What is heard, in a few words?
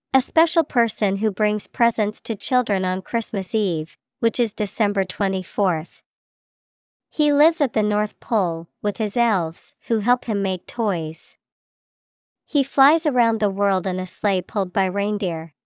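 santa claus, English vocabulary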